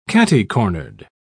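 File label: "catty-cornered", British English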